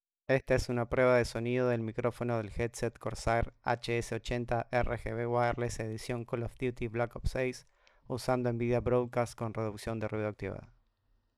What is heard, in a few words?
HS80 Wireless; Nvidia